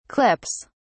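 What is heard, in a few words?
"clips", British English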